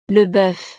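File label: "le boeuf", French; navidad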